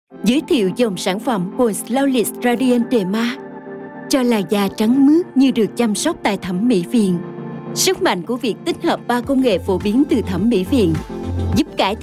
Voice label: Commercial

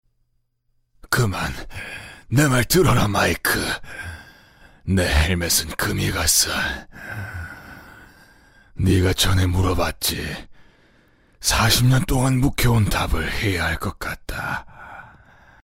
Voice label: Acting

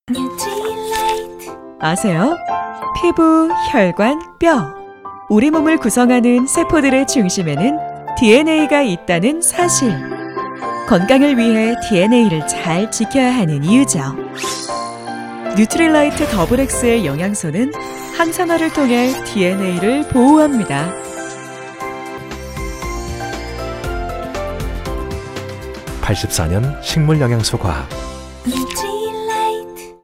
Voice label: Narration

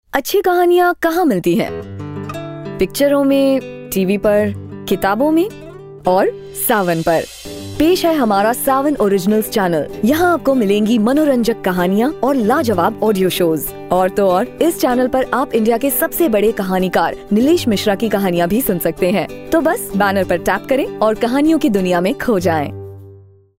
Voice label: Commercial

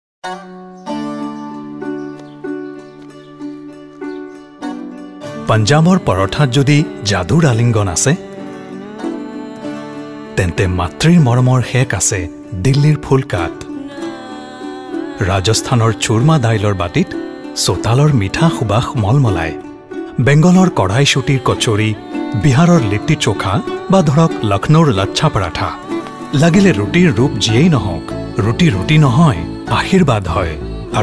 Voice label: Commercial

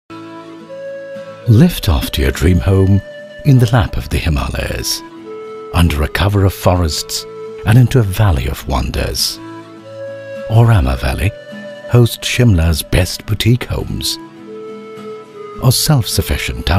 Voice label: Commercial

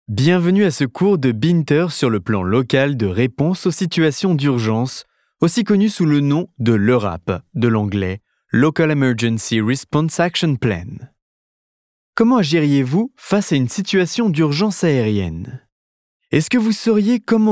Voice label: Elearning

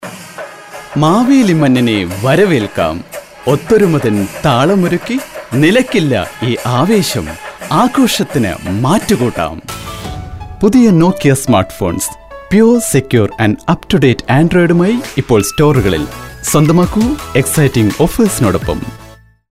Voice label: Commercial

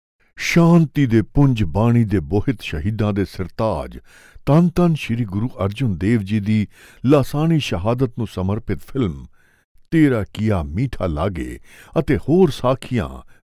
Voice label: Commercial

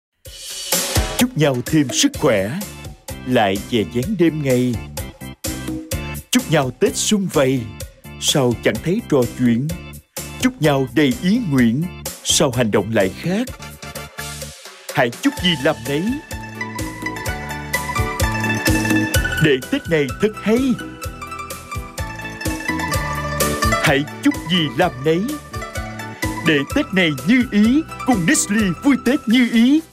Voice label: Commercial

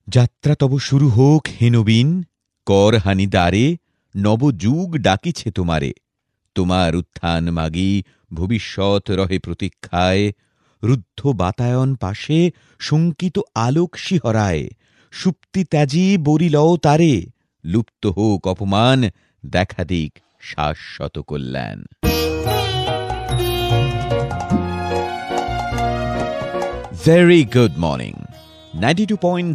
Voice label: Radio Show Sample

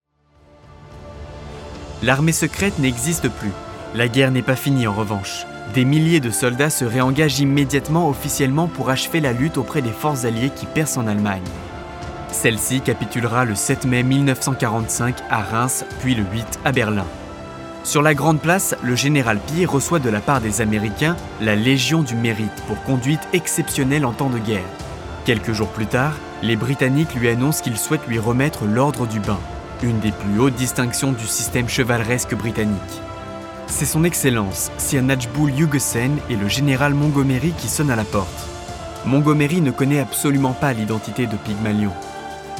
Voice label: Documentary

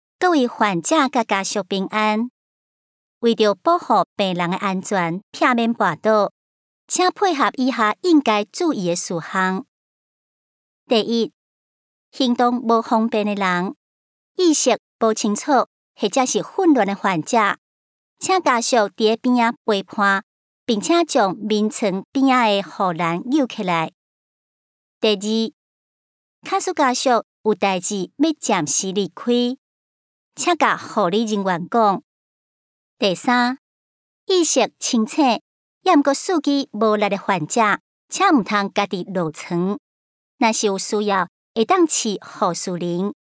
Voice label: Narration

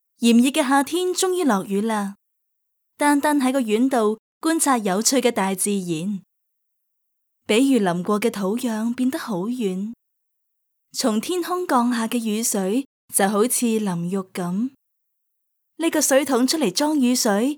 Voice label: Commercial

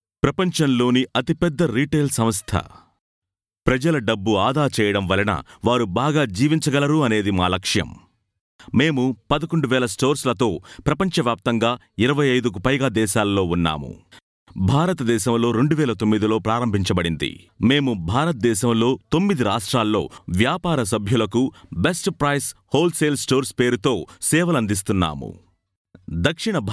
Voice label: Narration

